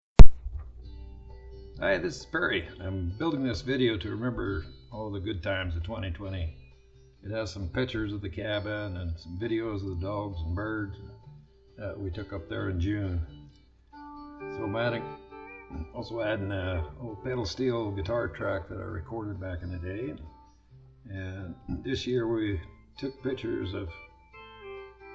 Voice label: Narration